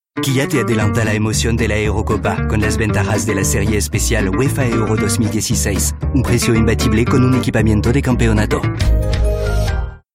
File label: spanish commercial